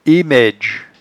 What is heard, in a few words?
"emaidge", patois jura